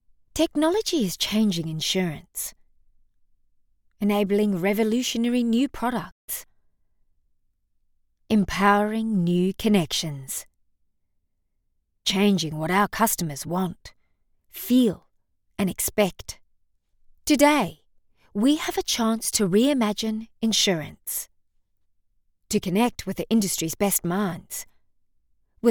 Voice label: South African accent; DXC